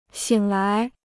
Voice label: "醒来", Chinese